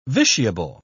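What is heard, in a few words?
"vitiable", English, British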